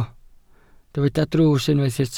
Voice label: "drws", Welsh

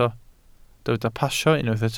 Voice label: "pasio", Welsh

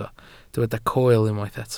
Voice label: "coel", welsh

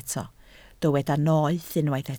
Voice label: "noeth", Welsh

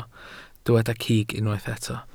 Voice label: "cig", welsh

